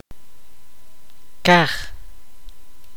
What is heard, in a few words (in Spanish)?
"cielo", Kaqchikel